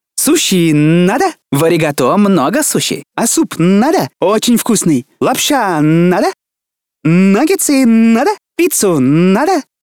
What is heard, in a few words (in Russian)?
yaponec